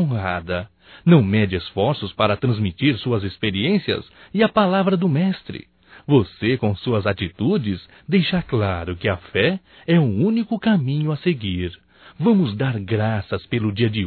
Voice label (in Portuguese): Dia da Sogra Evangelica Masculino